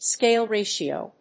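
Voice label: "scale ratio", English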